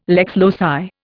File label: "lex loci", Indian